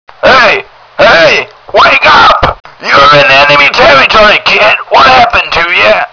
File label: radio; murica